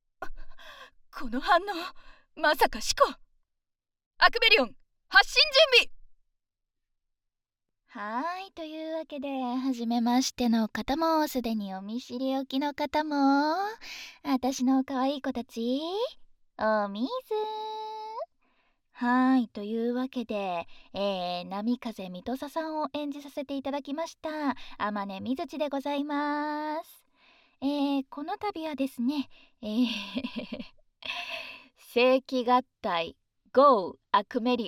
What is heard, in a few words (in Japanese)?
フリートーク!（SE無し）